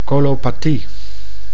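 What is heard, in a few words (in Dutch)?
"colopathie", Dutch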